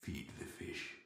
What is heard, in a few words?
beat pirate voice; goldentavern